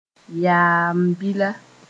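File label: "yaam-bila", Mooré; kidney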